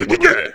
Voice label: growl, goblin; Fate The Traitor Soul; MONSTERS

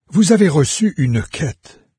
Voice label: new quest, fr, NARRATOR; Fate

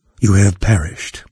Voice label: die, narrator; Fate The Cursed King; en-US

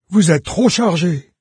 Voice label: pack full, fr, NARRATOR; Fate

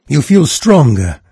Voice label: "stronger", en-US narrator; Fate Undiscovered Realms

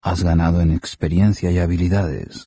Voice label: level up, voices, es, narrator; Fate

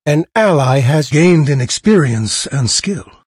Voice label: ally levelup, narrator; Fate The Cursed King; en-US

